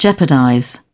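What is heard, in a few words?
"Jeopardize", British English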